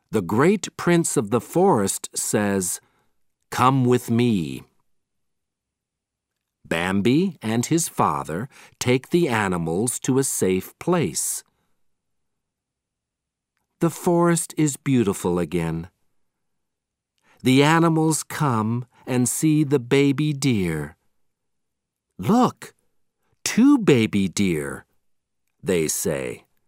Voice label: Audio British English